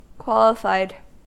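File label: "qualified", English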